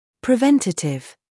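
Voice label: "scandal", American; preventative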